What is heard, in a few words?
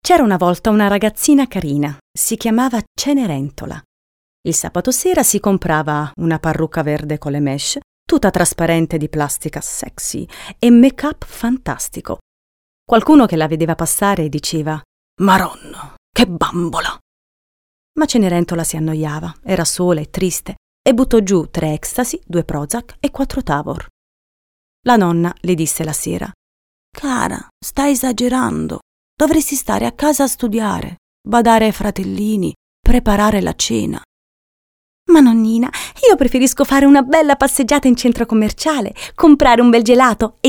voxpop, narrative